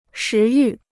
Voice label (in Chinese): "食欲", Chinese